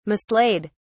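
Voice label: "mislaid", British English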